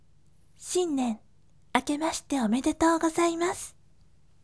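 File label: voice, gentle; shinnen akemashite omedetou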